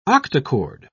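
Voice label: "octachord", English, British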